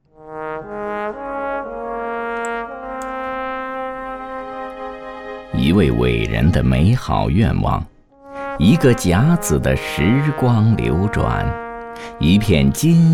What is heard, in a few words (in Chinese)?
男 黄金叶; 高仿任志宏